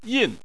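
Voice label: "yin", Mandarin Chinese, fourth tone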